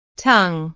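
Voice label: "tongue", English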